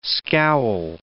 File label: "scowl", English